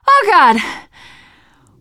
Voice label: hurrah, teengirl; player; survivor; voice